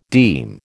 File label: "deem", American